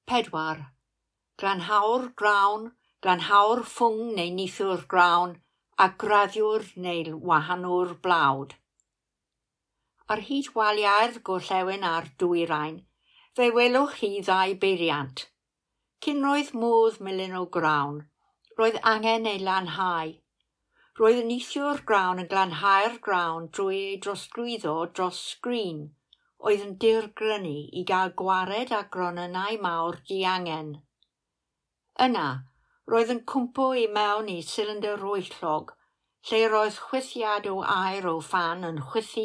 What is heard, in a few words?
Mill Welsh; Melin Heli Caeriw; Castell Caeriw; taith sain